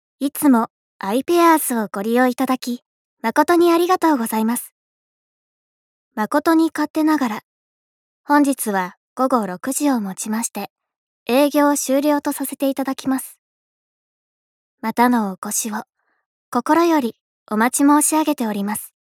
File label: narrator